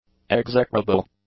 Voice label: "execrable", english; adjectives